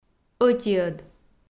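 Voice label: "ӧтиӧд", Komi, female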